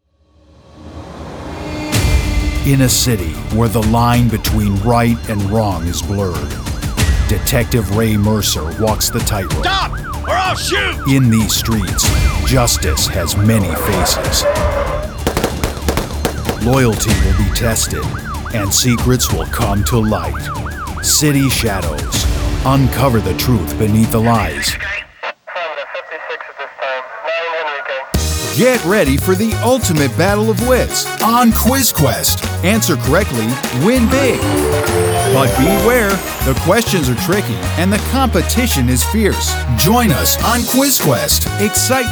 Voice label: PROMO